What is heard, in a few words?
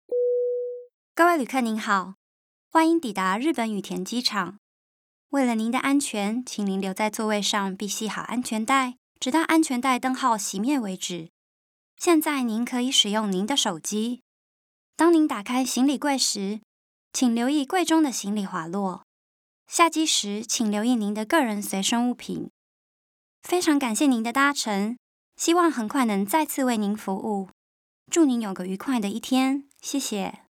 Chinese Mandarin commercial